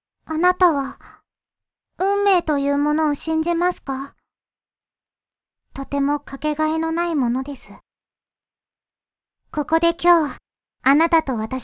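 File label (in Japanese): サンプルボイス, 運命感じちゃう娘 (地声)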